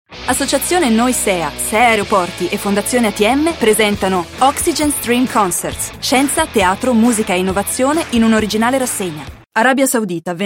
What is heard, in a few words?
Italian